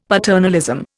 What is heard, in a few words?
"paternalism", Indian; Dictionary